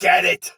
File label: see player shout soldier sword agent male; Sinecure